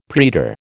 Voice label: "praetor", American